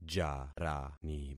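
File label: "jarani", concatenated syllables; Affixing experiment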